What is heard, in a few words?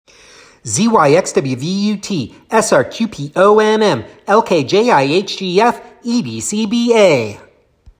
Alphabet Backwards Faster